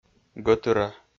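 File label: "гӧтыра", Komi, male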